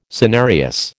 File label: "senarius", American